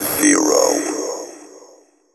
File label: techno male 140; Vocals